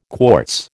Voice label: "quartz", American